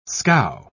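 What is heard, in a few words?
"scow", British English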